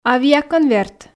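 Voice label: "авиаконверт", Komi, female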